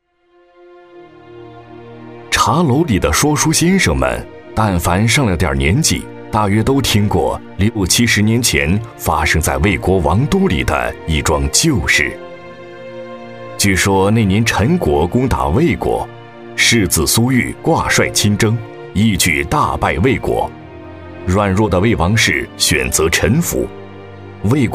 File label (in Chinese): 男 国 大音 历史解说